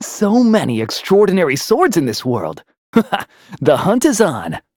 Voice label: Kibera vox get, voices heroes en; kingsraid-audio

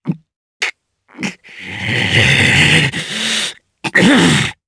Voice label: Vox Sad jp; voices heroes jp; DarkKasel; kingsraid

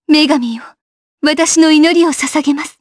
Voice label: Vox Skill jp; kingsraid

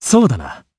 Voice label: Vox, jp; Siegfried; kings raid

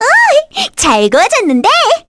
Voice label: Victory, kr; Cleo; kingsraid

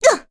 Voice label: Damage, kr; Chrisha; kingsraid

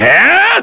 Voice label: Vox Skill, kr; Fluss; kingsraid

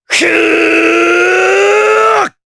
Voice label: Casting, jp; Riheet; kingsraid audio

